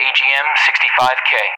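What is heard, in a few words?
"AGM-65K", English; Weapon; DCS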